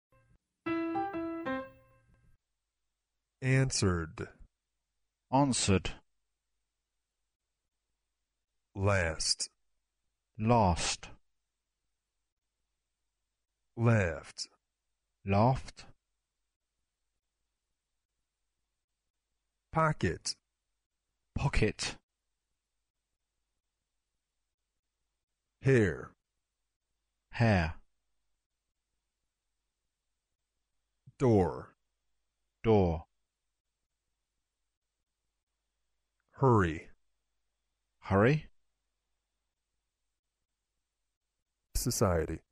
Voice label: American and British